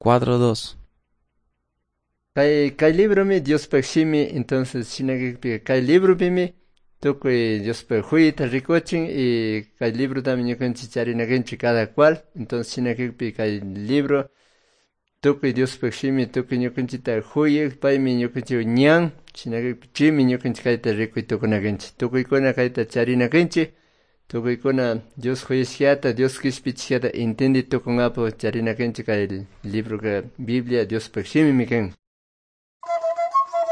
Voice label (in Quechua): good news quichua cayambe